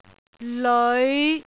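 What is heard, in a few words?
"leoi5", Cantonese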